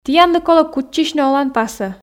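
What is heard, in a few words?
"тіянлы колӧ кутчысьны оланпасӧ", Komi, female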